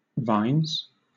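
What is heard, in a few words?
"vines", British English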